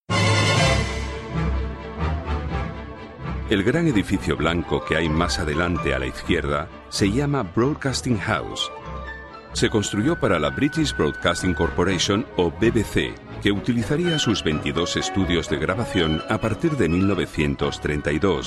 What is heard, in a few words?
Spanish Narration